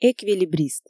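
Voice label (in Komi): "эквилибрист", Komi, female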